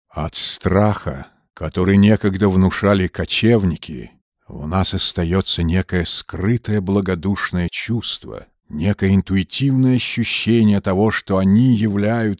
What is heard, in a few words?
Animation voice-over